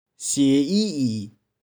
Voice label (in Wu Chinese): "鮮猗猗", 台州語; 鮮。[fresh]; 玉環台山